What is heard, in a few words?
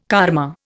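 "karma", Indian English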